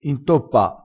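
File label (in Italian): "intoppa", vocabolario dialettale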